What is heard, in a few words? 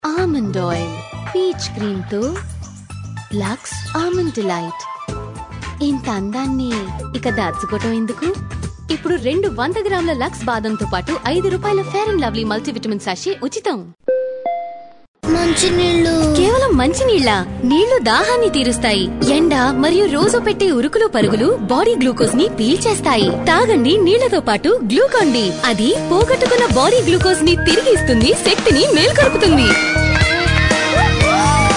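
telugu female voice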